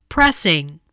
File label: "pressing", voice, spelling